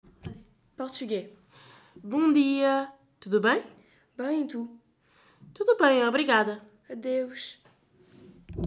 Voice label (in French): Portugais